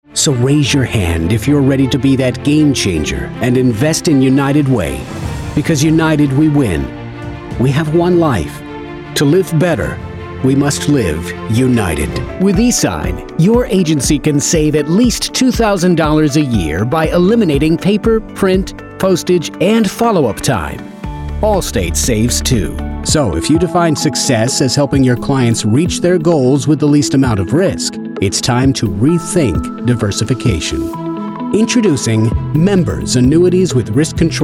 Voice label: Narration Professional and Informative, voiceovers